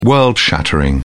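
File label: "world-shattering", British English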